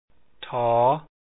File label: th1 sound, lao alphabet sounds